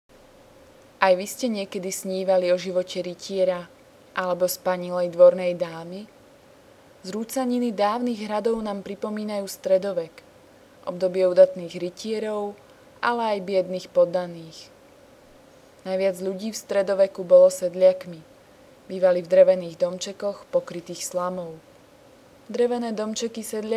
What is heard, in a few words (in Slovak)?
Rytieri hlas; Revelation Sight and Sound SK